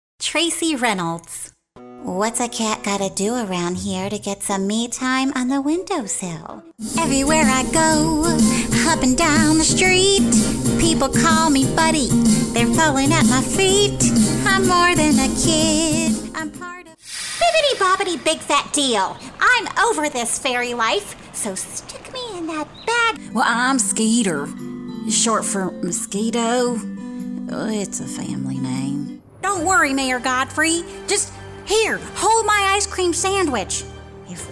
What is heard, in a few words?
Animation; Animation Demo